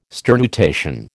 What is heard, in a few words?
"sternutation", American